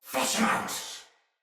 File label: Sight Lost Shout Thawtide Hunter; Barks Battle